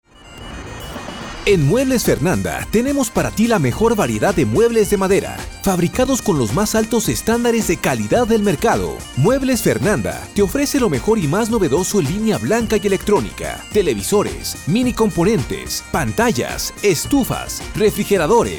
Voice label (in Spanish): perifoneo; Muebles Fernanda